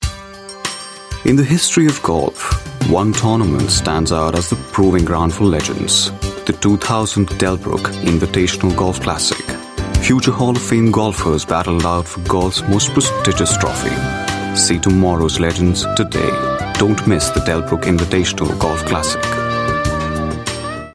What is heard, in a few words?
Indian English, Male